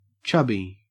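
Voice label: "chubby", English, Australian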